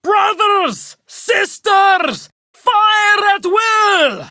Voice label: Enemy Spotted Female